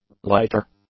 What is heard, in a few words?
"lighter", English; nouns